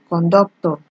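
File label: "conductor", Nigerian Pidgin, female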